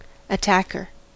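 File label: "attacker" English, US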